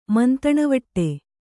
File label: "ಮಂತಣವಟ್ಟೆ", Kannada